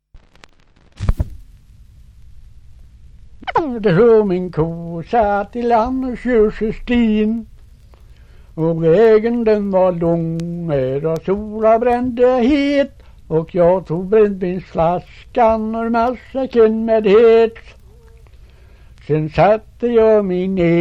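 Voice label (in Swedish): Torsö Västergötland, dialekter; Folkminnen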